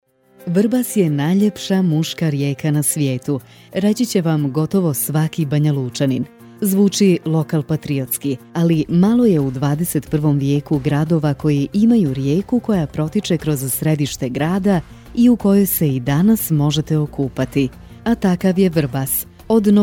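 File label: Bosnian, female; voice over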